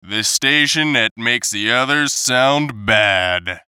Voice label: VOICE COMICAL; The Station That Makes The Others Sound Bad; Funny Sound; Radio Voice; Blastwave

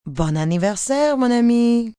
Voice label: Bday Friend, female, French; Occasion, event; foreign; Holiday; Voice Box

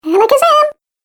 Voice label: Cartoon Game Voice "Alakazam"

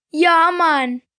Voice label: Response, 1 boy, 10 yrs.; Ya Mon; Talking; Voice Box

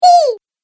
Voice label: Cartoon Game Voice "Eeee"

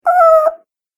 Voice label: Cartoon Game Voice Ooh oo

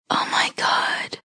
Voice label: Oh My God Whisper, Female; Whispering Sound Effects

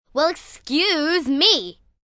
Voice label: Well Excuse Me, Attitude, 1 girl, 9 yrs; VoiceBox

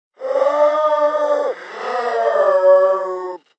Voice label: Help Old Man, Male; Talking Sound Effects

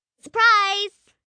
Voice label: Surprise, Occasions, 1 girl, 9 yrs.; Talking; VoiceBox

